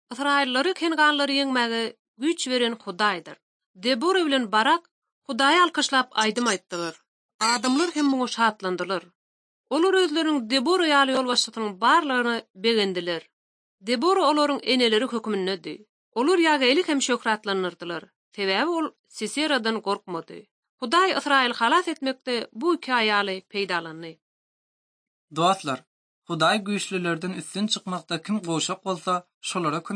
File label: turkmen, ot drama